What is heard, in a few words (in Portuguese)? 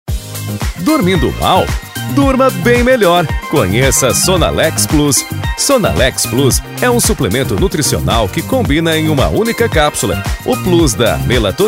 Spot; Sonalex Plus; Biofhitus